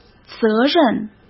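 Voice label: "责任", Mandarin Chinese; chinese-flashcards; hsk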